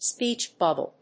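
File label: "speech bubble", English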